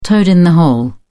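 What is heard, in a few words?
"toad-in-the-hole", British English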